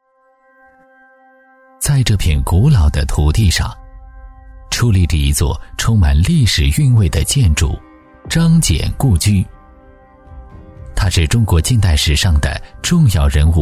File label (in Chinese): 男 纪录片 非遗建筑风格; 记录讲解 质感磁性